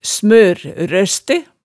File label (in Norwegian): "smør-røste", hemsedalsmaalet